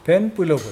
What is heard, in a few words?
"drinking coconut", Mokilese